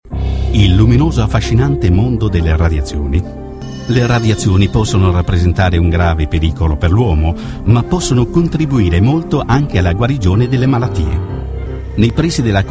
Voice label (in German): Sprechprobe italienisch Doku